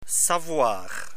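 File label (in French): "savoir", French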